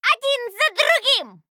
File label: Female Gnome Attack